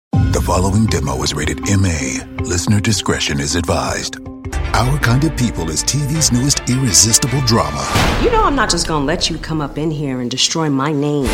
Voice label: english american promos